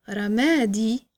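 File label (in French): "gris", French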